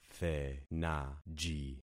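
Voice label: "thenaji", concatenated syllables; Affixing experiment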